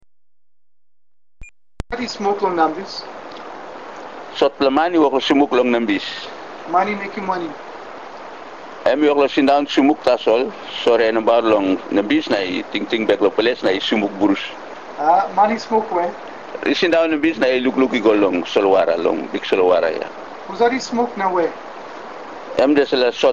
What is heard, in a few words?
Tok Pisin; TokPisinTexte